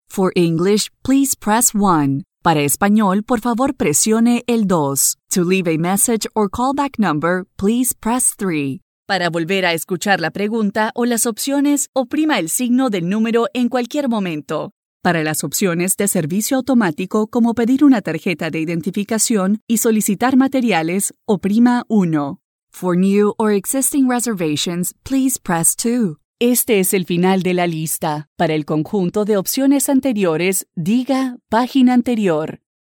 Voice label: Voicemail

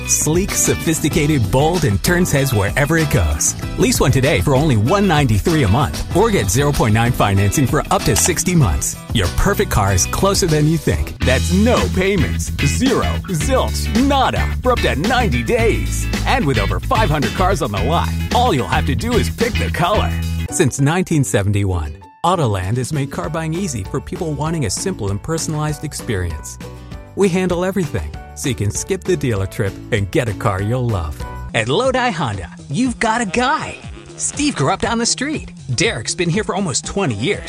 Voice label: Car Spots

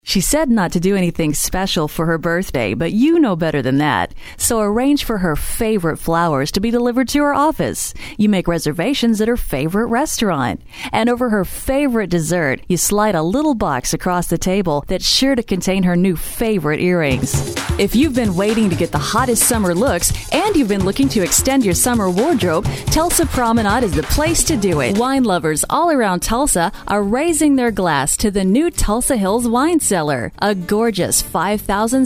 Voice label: Commercial

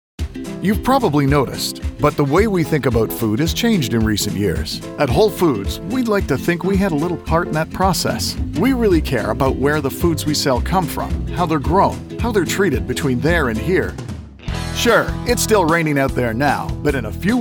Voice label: Male Commercial

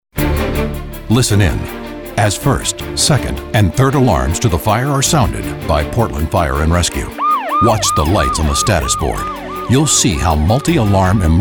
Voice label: Narration

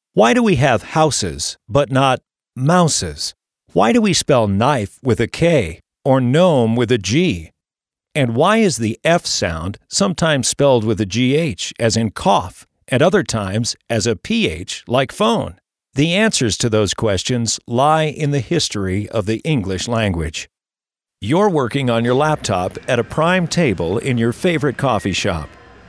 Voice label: Narration

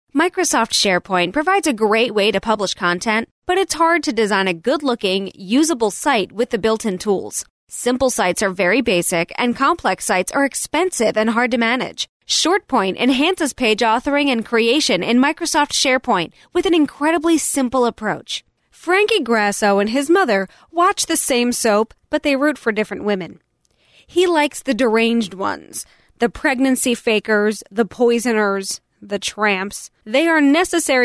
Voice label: Narration

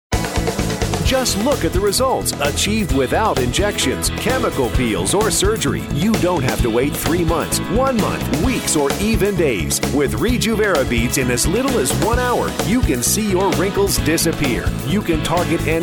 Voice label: Infomercial

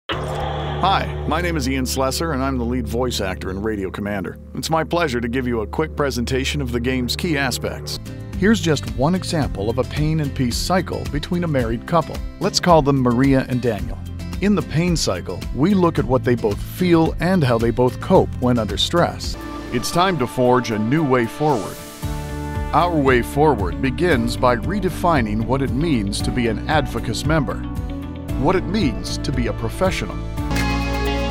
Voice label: Explainer Videos

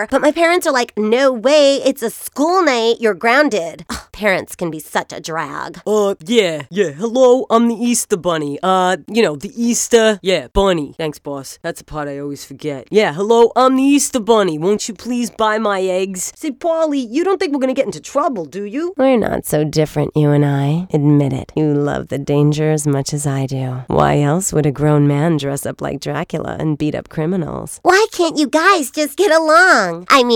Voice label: Character Voices

